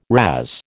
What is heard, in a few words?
"razz", American